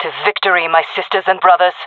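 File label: round start, female voice; player vo; Counter Strike Model Pack; CS:GO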